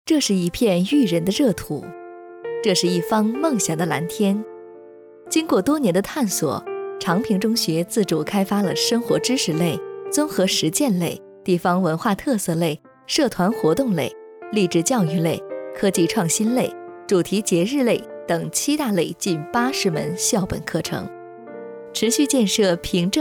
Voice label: 女宣传片学校介绍阳光

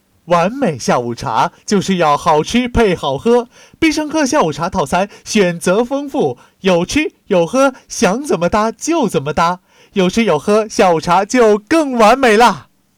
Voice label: 广告

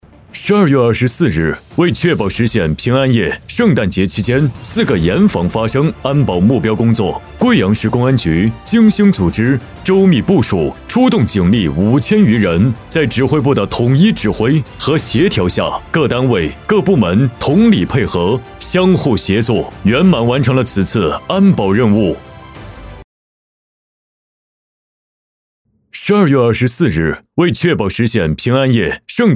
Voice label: 党政汇报; 贵阳公安平安夜筑平安

